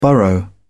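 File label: "burrow", British English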